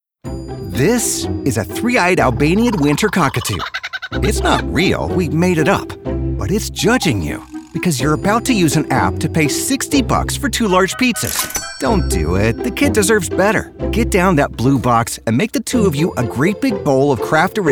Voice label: voiceover explainer excited